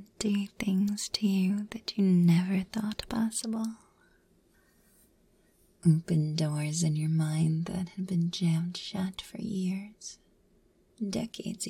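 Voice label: [F4A] [Hypnosis][ASMR][Whispering][Ear rubbing][Affectionate]; Mind Space; Brainwashing; Drone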